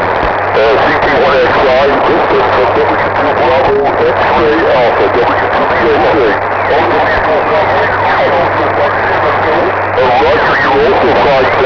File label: ao-7 ssb qso